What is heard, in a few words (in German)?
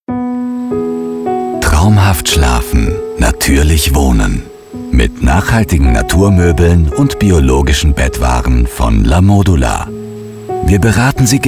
Werbung sanft; LaModula